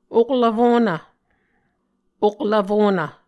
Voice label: "oklvfonv", Muscogee